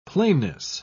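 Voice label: "plainness", British English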